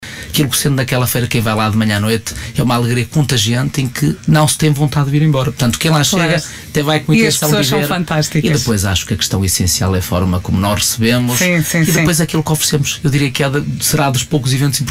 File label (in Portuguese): comercial; feira do alvarinho